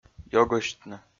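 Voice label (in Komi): "ёгӧсьтны", Komi, male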